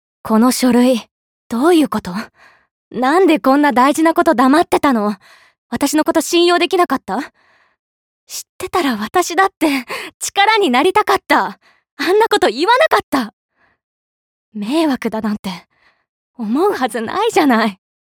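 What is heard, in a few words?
caring older sister, Japanese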